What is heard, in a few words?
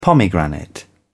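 "pomegranate", British English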